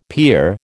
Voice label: "pier", American